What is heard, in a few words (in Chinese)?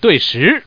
"dui", man; guizhou